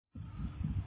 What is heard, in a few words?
Voice Note